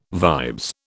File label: "vibes", British